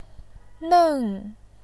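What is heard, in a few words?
"neng", Mandarin, tone 4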